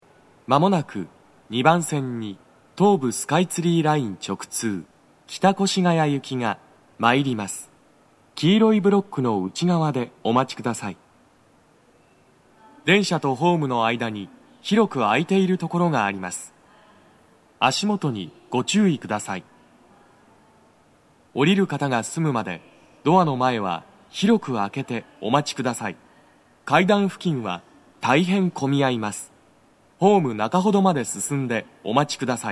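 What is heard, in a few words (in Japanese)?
接近放送, 混雑付帯, 男声; 放送は「北越谷行 (混雑付帯)」です。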